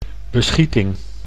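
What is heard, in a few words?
"beschieting", Dutch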